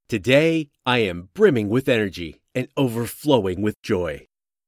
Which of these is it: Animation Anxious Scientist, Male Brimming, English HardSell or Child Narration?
Male Brimming